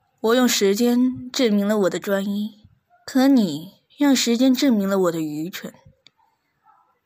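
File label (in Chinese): 高傲的女王, 女配音 青年 普通话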